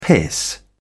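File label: "piss", British English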